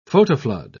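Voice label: "photoflood", British English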